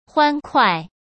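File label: "欢快" Mandarin Chinese; hsk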